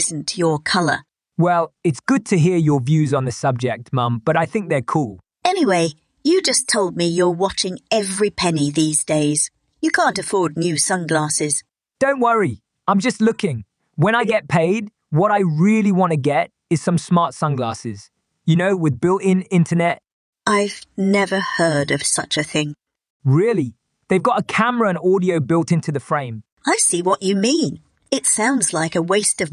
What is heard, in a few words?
Cinema Dialogue